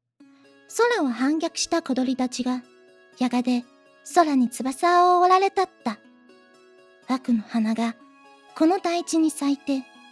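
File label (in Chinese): 日语芳华声线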